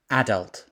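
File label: "adult", British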